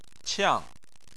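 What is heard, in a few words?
"qiang", Chinese, tone 4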